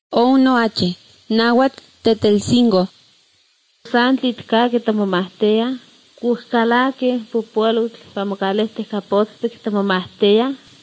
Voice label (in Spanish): spanish mexico